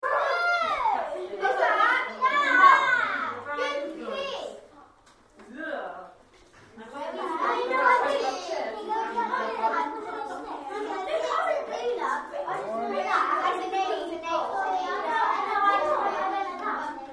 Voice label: Childrens Tea Party, 7 to 10 year olds - various chatter at party; Holidays; Tom Hutchings